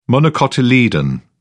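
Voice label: "monocotyledon", English, British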